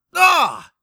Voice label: Hurt Med; X Lines; X line pickups